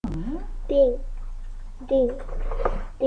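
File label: "ding", spoken word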